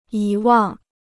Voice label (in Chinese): "遗忘", Chinese